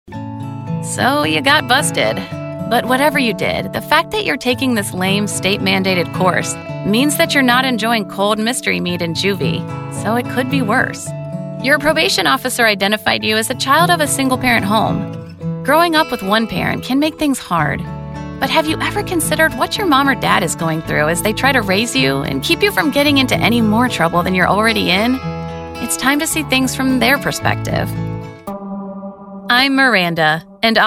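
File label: eLearning, Professional Female Voice Talent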